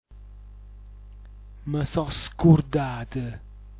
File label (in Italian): "msoscurdat", dialetto giulianova